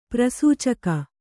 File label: "ಪ್ರಸೂಚಕ", Kannada